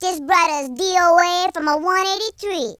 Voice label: "fatality", Gangsta; speech